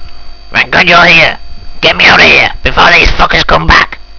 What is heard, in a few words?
old man